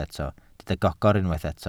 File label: "gogr", Welsh